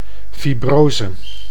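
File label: "fibrose", Dutch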